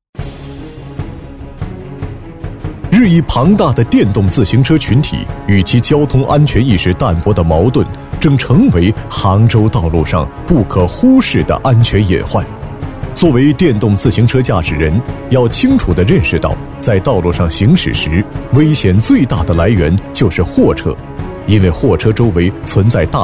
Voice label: 男, 警示片, 大气主流 大气浑厚; 交通安全警示

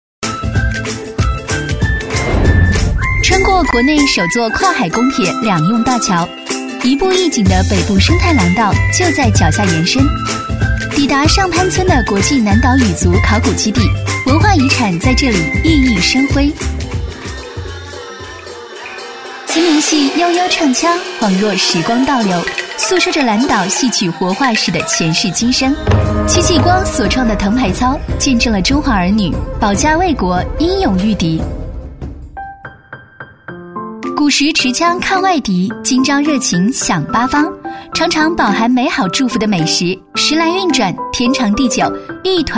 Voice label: 女 旅游宣传日记 生态园 自然活力; 轻松活泼

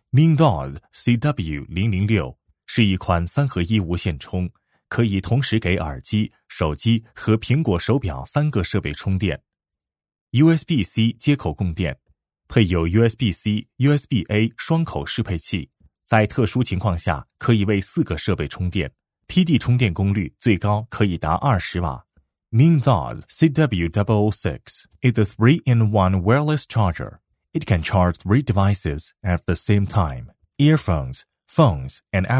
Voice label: 男 中英宣传片 无线充; 中英双语

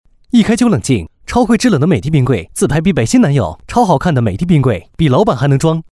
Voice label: 男 广告 洗脑 品质; 美的冰柜; 年轻活力 质感磁性

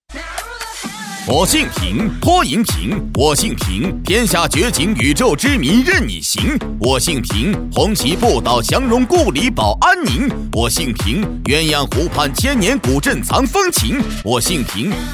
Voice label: 男 喊麦, 年轻全能 成熟厚重; 我姓屏

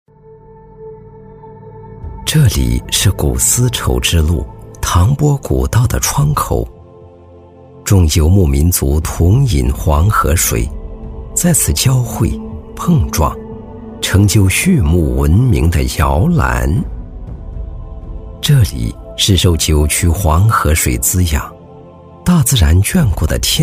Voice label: 男, 地理宣传片, 大气舒缓; 陇原宣传片; 历史感强 高端大气

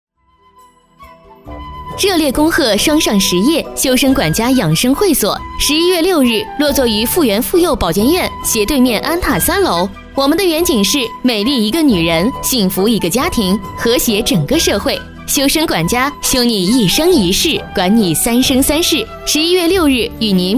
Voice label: 女 广告配音 柔和; 轻松活泼; 养生会馆

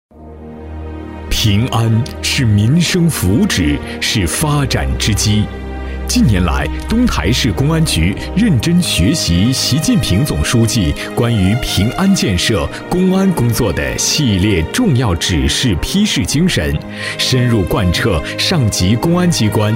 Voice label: 男 公安宣传 稳重大气; 东台平安汇报; 浑厚大气

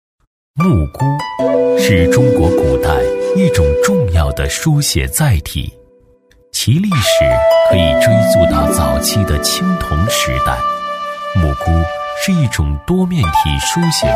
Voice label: 男 历史纪录, 硬朗力度 大气浑厚; 甘肃简牍博物馆

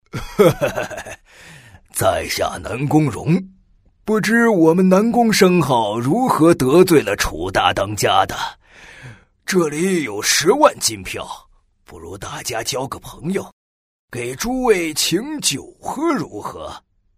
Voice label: 男 角色 中年胖富人; 年轻多变 大气浑厚; 男WX-角色-中年胖富人

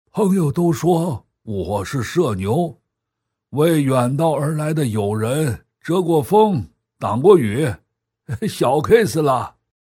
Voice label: 男 角色配音 老年音; 素人自然; 男60-角色配音-老年音

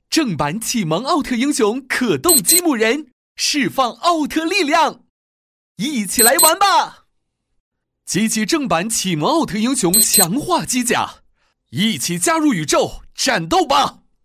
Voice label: 男 玩具广告 激情有力; 年轻活力 轻松活泼; 奥特机甲

